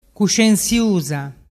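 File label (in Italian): "cuscensiusa", Vernazzese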